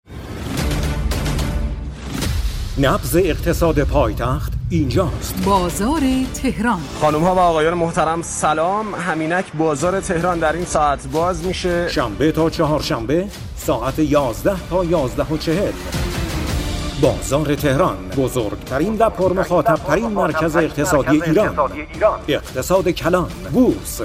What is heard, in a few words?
narrator